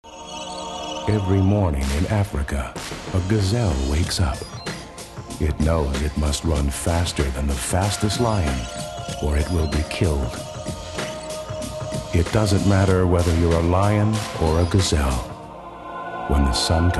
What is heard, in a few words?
American Male